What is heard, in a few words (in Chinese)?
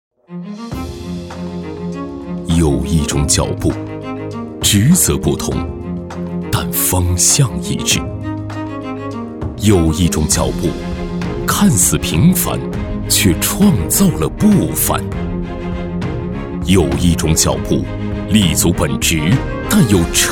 男 大气专题